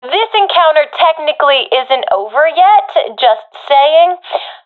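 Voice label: round losing, professional female; Counter Strike Model Pack; CS:GO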